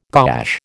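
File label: "gouache", American English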